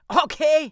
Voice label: "ok", Voices; Trafalgar; Quarry